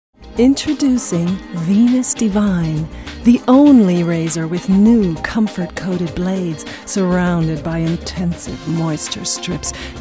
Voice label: Werbung

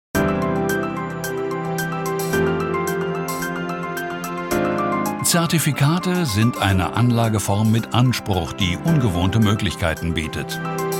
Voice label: Imagefilm; WGZ Bank Zertifikate

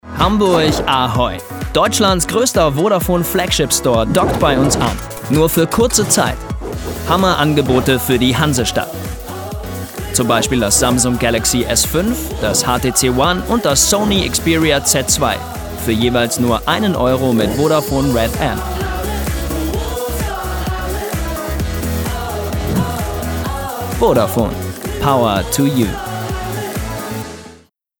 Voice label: Werbung; Vodafone

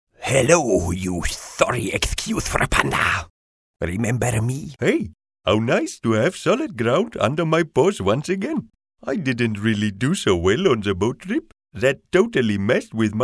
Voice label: Trickstimmenmix Englisch

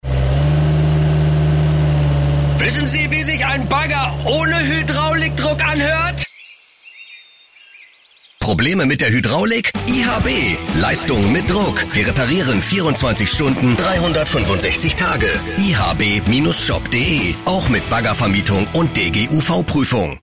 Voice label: Funkspot Baumaschinen kraftvoll schnell

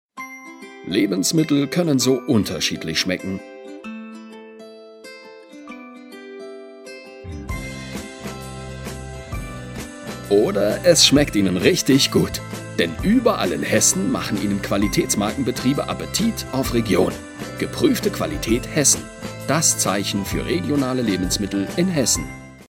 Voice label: Werbung

